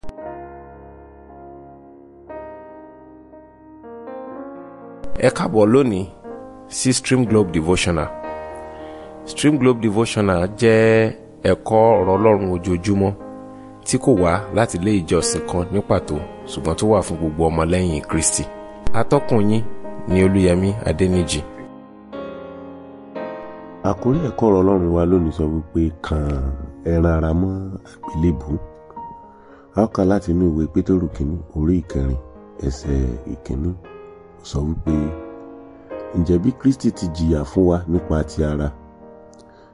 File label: Devotional Audio Yoruba